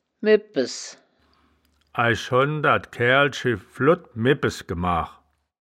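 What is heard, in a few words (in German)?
"mibpes", Wittlicher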